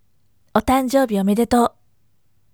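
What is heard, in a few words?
voice "happy birthday" Japanese, firm; otanjoubi omedetou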